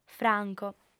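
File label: "franco", Sardinian, female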